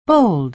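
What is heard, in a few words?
"bold", American English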